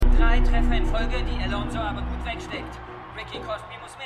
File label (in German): Boxkommentator; Suits